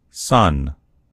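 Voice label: "son", American English; gcompris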